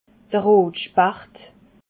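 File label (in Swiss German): "rotspacht", Alsatian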